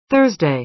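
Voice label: "thursday", American English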